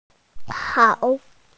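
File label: "hao3", Mandarin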